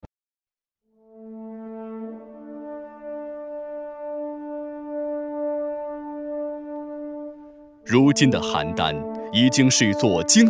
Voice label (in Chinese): 男 制药 男专题, 国语男声配音; 邯郸制药